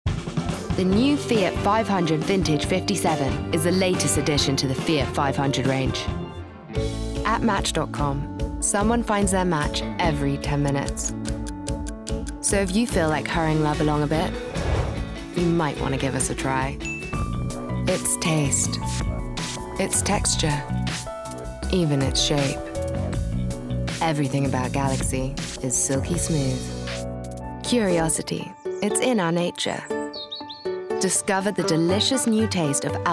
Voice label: Commercials Montage